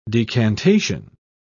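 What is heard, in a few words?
"decantation", English, British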